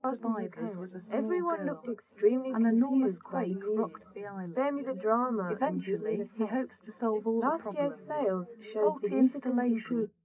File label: female4; SiSEC10; underdetermined